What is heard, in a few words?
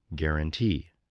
"guarantee", spelling